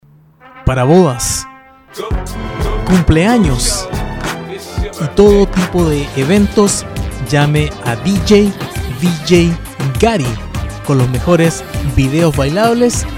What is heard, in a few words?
commercial spanish